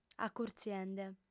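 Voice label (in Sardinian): "acurtziende", lingua sarda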